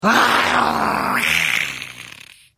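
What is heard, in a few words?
Hello, Khajiit female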